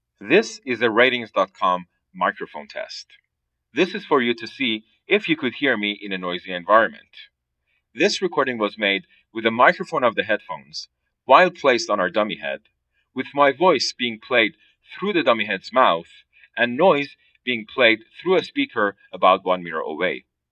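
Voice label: usb internal mic, gaming headset; Voice; Swedish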